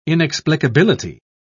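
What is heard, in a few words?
"inexplicability", British English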